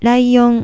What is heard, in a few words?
"raion", Japanese